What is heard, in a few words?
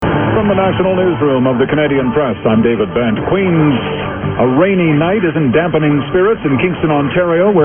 news canadian press; cfrb